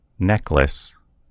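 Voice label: "necklace", American English; gcompris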